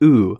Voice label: phoneme long uu, American